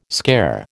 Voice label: "scare", American

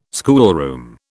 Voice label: "schoolroom", British

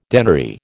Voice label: "denary", American

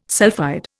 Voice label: "sulphide", Indian

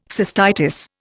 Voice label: "cystitis", Indian

